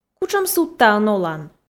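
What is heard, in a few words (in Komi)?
кутшӧм судтаын олан, Komi, female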